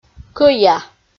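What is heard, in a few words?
"кӧя", Komi, female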